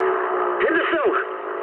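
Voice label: English bailout mood med; War Thunder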